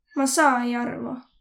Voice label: no understand, voro